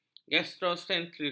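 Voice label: "gastroenteritis", Malay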